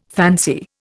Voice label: "fancy", Indian

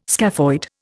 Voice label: "scaphoid", Indian

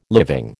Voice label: "living", American

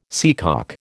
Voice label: "seacock", American

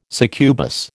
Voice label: "succubus", American

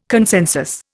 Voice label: "consensus", Indian

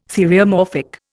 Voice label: "theriomorphic", Indian

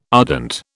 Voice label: "ardent", British

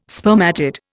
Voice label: "spermatid", Indian

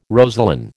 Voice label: "rosaline", American

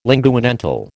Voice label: "linguodental", American

